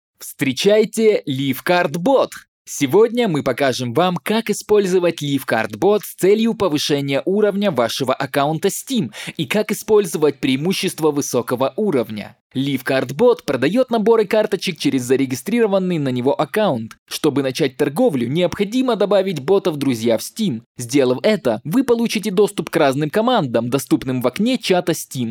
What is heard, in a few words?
russian video games